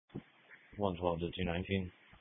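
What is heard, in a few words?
Police Patrol Dispatch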